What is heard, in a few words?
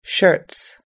"shirts", us-english